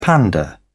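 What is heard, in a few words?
"panda", British English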